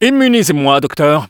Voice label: spy activate charge, fr; "Immunisez moi Docteur."

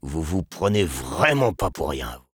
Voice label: sniper taunts, fr

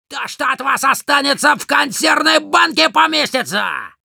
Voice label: taunts, ru; Demoman

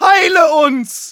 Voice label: battlecry, de; Medic

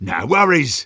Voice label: Sniper positive vocalization

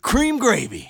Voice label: Engineer cheers

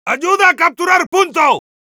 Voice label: Heavy help me capture, es

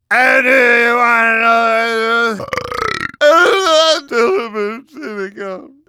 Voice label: Demoman gibberish

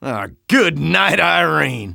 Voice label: Engineer jeers

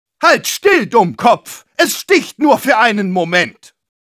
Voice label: Medic taunts, de

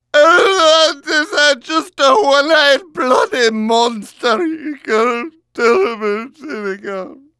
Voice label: Demoman gibberish

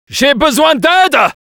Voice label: spy help me, fr; "J'ai besoin d'aide !"

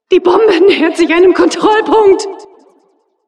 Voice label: cart attacker warning, de; "Die Bombe nähert sich einem Kontrollpunkt!"; Announcer

